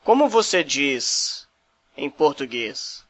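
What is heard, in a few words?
how to say, Portuguese Brazil; Como você diz ... em português?; Brazilian Portuguese, the type of Portuguese spoken in B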